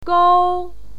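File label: "gou", Mandarin Chinese, first tone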